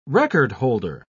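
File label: "record-holder", British English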